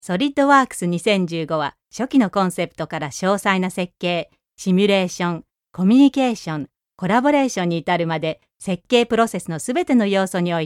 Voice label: Japanese compilation; Japanese, Male, 30s-40s

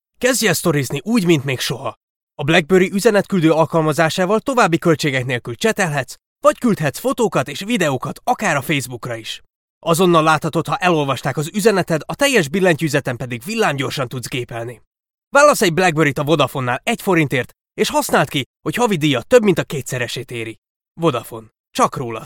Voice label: Hungarian; Eastern European, Hungarian, Male, Home Studio, 20s-30s

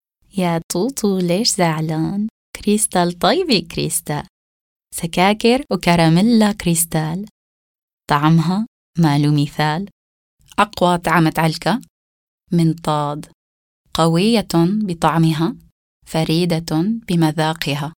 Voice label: Arabic

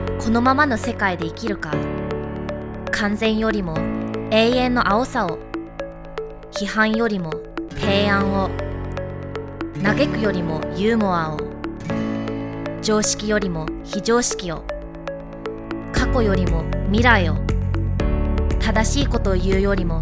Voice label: Japanese

